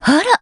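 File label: "ara", Japanese; virgilia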